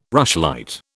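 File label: "rushlight", British English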